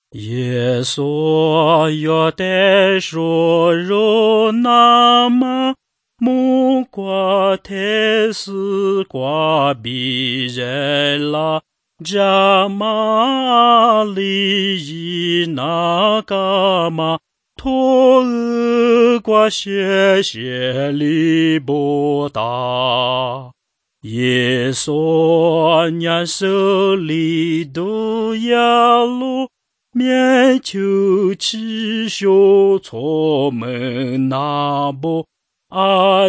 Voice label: words of life lisu southern

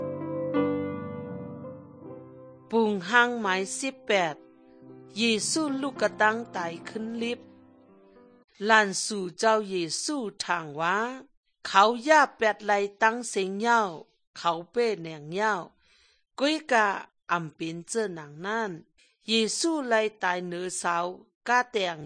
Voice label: good news shan eastern, drama

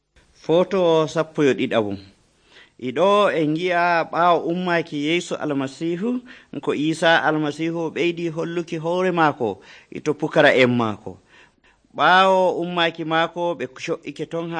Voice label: fulfulde, drama; fulfulde adamawa abc nt drama

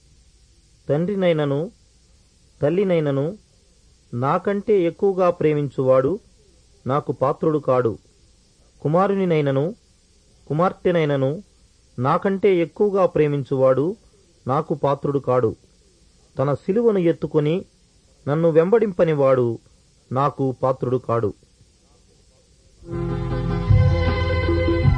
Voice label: telugu; portrait of jesus

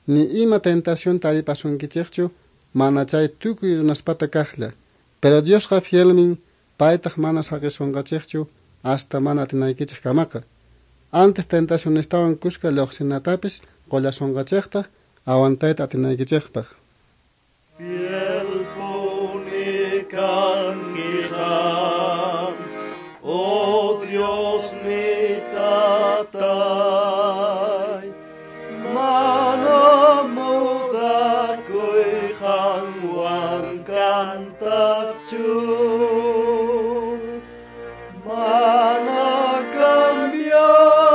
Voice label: words of life quechua bolivia

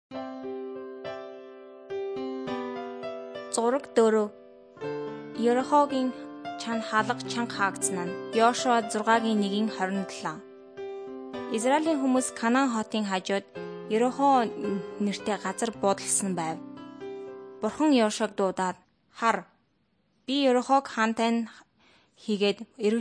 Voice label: mongolian

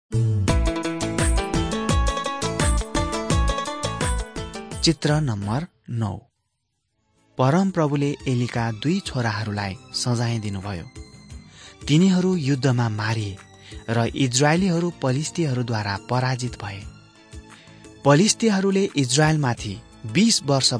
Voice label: servants of god nepali

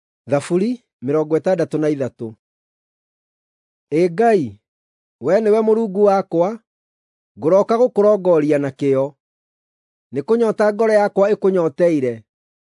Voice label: psalms, gikuyu drama

